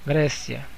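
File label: "greece", Brazilian Portuguese; gcompris; geography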